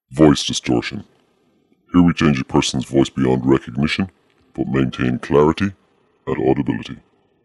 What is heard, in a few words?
voice distortion